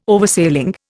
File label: "Oversailing", Indian